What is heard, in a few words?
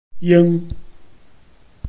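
"ying1", Mandarin Chinese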